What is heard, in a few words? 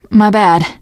sorry, teen girl voice; source engine; left 4 dead 2; survivor